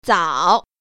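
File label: "zao3", Mandarin Chinese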